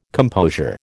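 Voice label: "composure", American English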